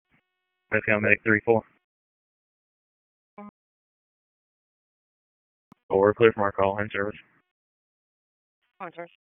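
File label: EMS Dispatch; wake; p25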